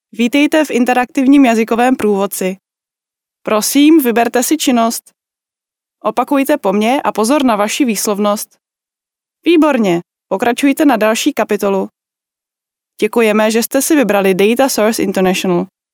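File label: Czech female corporate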